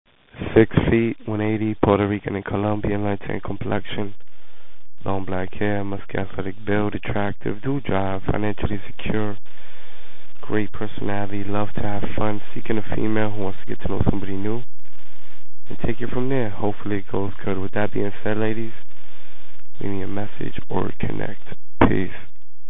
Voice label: Guy